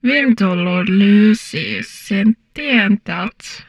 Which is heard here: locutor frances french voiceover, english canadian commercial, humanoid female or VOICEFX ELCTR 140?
humanoid female